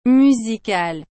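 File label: "musicale", French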